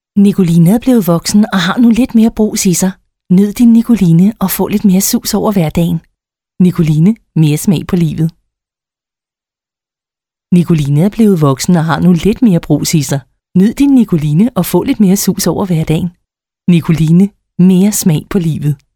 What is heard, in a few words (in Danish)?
Reklame